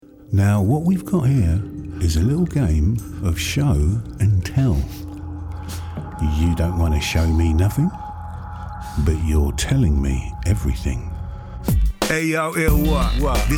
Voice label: english british video games

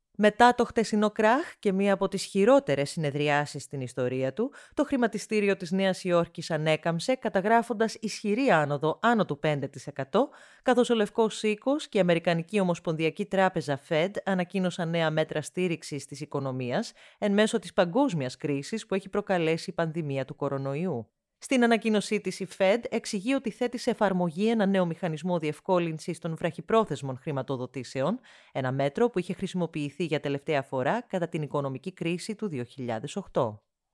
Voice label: greek corporate videos

